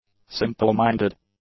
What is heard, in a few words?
"simpleminded", English; adjectives